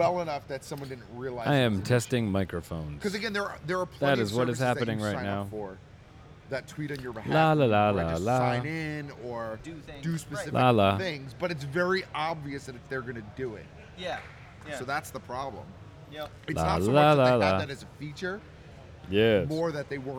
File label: Male Radio; NYCC 2013